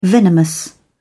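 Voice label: "venomous", British English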